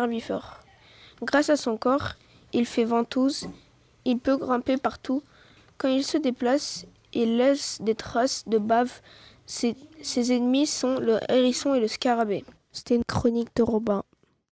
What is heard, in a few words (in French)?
L'escargot voix